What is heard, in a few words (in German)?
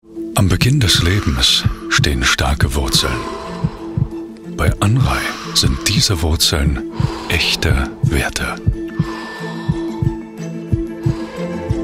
Hoerprobe Imagefilm; Episch